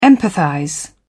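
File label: "empathize", British English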